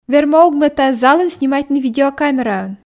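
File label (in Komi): верма ог ме тайӧ залын снимайтны видеокамераӧн, Komi, female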